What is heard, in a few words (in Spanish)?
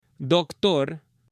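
"doctor", Spanish, female; AppLenguaje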